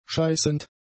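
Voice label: "scheißend", german; adjectives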